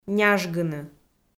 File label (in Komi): "няжгыны", Komi